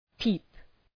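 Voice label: "peep", English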